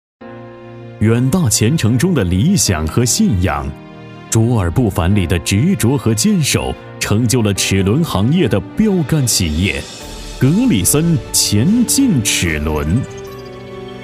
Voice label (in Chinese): 企业类 专题片; 格里森齿轮